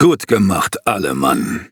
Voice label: victory, de; Cookie Run